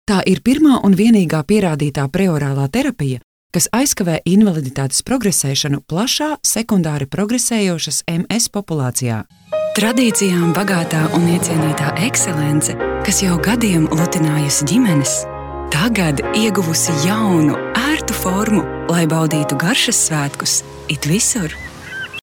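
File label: Latvian, female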